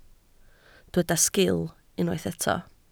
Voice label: "sgil", Welsh